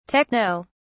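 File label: "techno", British English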